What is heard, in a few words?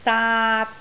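"daat3", Cantonese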